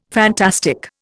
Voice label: "fantastic", Indian